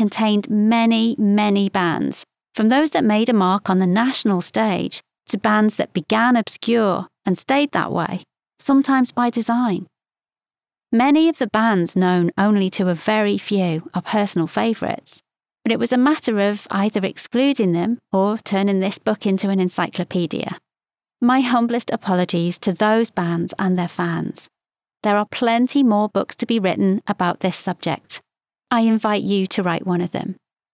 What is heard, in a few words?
non fiction indie music history short read